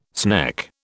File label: "sneck", British